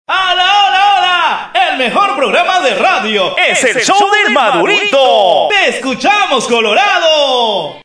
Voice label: CUÑA HOLA

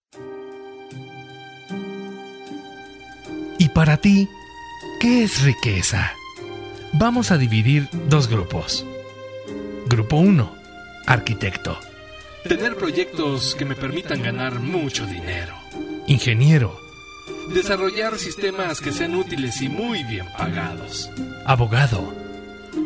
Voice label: locutor emotivo; que es riqueza

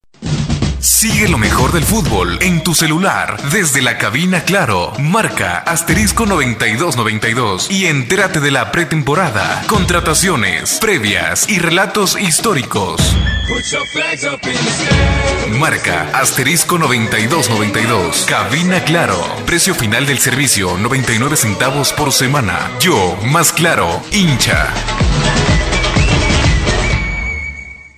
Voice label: cuña; claro mundial

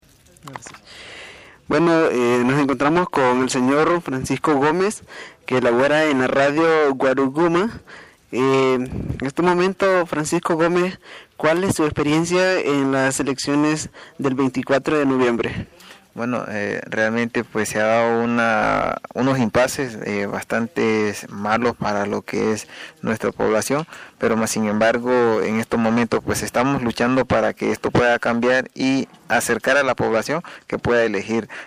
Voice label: la voz caliente

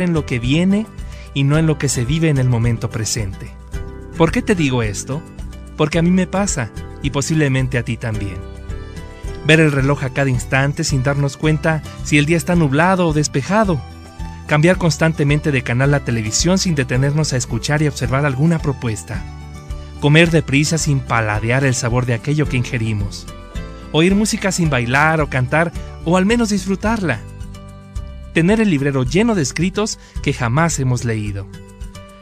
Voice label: locutor emotivo la vida

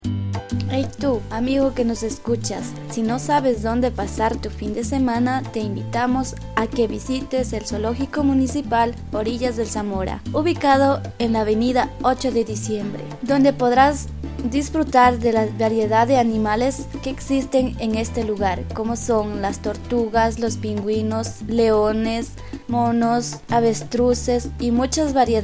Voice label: spot publicitario

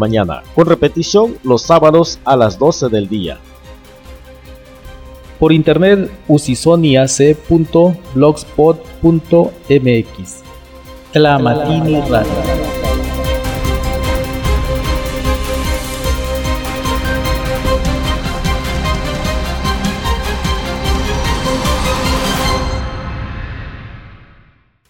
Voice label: spot las voces

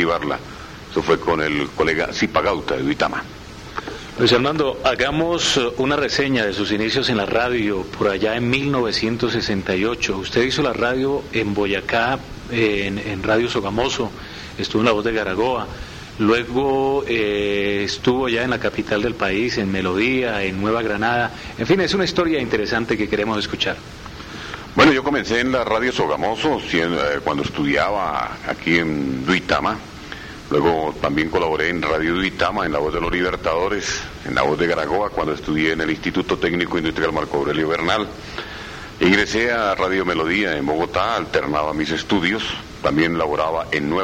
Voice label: locutor

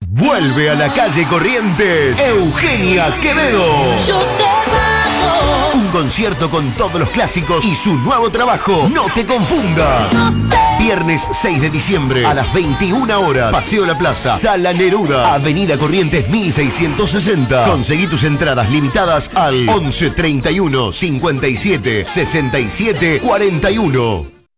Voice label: locucion

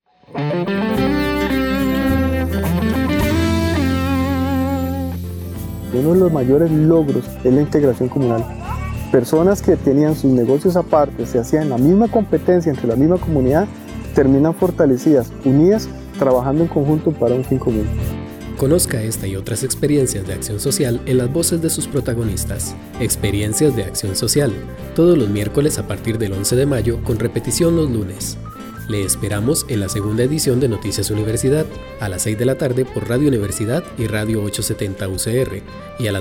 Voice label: cuña informativa

